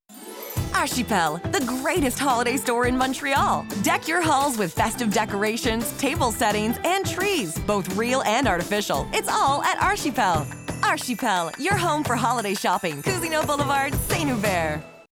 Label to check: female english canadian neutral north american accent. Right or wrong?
Right